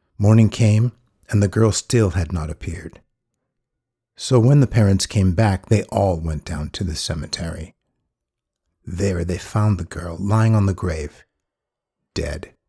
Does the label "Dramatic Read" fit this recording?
Yes